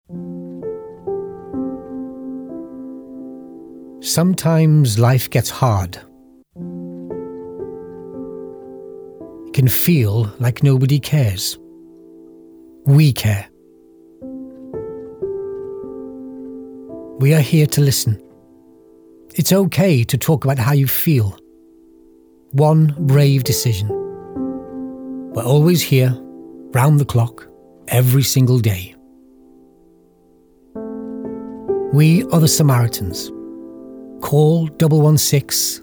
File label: male welsh slight welsh accent charity appeal; samaritans; devine voices